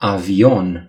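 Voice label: "plane", Romanian; gcompris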